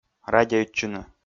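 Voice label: "радейтчыны", Komi, male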